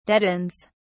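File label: "deadens", en, British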